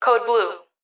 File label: Emergency Human Female Voice Hospital Calls Code Blue; emergency effects